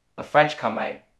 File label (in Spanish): Voice Acting es M